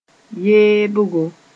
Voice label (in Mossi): "yeebgo", moore